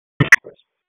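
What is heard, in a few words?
Raleigh Police Southeast District Dispatch; wake; p25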